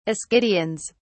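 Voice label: "ascidians", British English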